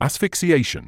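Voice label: "asphyxiation", British English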